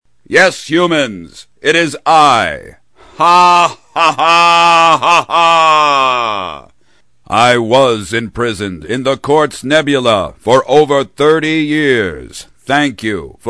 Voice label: ROBOT Bad Powerful; animation

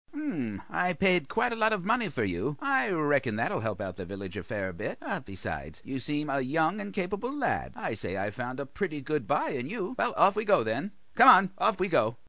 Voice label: Village Dealer, Likeable & Frugal; animation

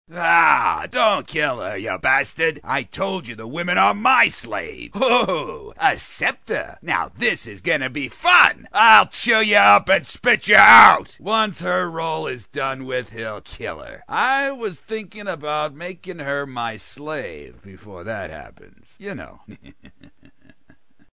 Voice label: Slave Master, Nasty & Perverted; animation

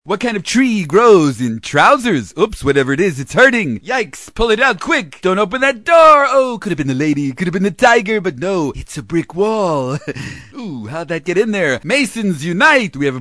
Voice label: Zany, cartoon; PHIL SILVERS; animation

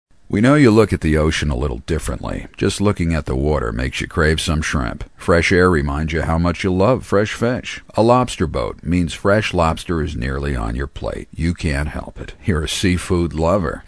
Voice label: Food - Warm Story, commercials

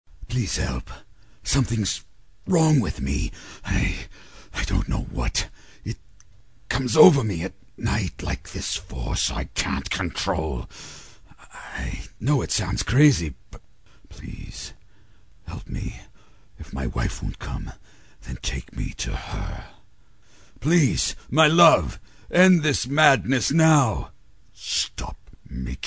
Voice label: GOOD GUY Turns Monster; animation

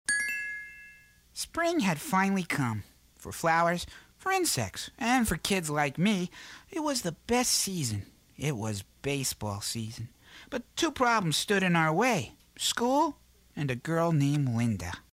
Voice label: Little Elfish Kid, cartoon; animation

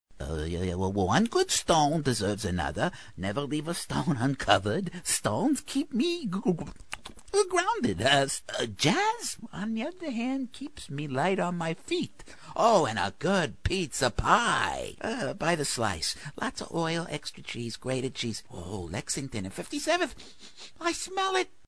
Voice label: Hamster, Silly & Unsure, cartoon; animation

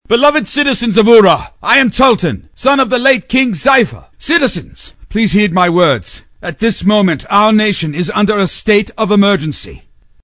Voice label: PRINCE Noble Young; animation

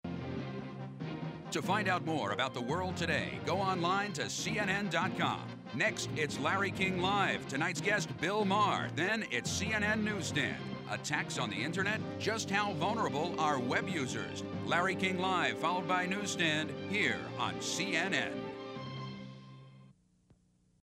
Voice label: NEWS PROMO; CNN NEWS; LARRY KING; promos trailers

